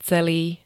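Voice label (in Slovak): "celý", Slovak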